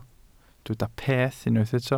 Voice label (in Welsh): "peth" Welsh